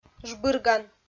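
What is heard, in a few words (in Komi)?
"жбырган", Komi, female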